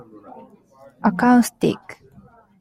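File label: "acoustic", JPN, female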